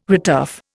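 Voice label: "returf", Indian English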